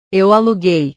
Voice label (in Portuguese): "eu aluguei", portugues